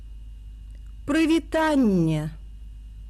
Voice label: belarusian hello; How To Say “Hello” in 30 Languages for World Hello Day